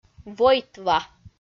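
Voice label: "войтва", Komi, female; audio dict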